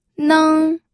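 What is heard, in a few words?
"neng", first tone, pinyin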